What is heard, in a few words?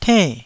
"tenn4", Taiwanese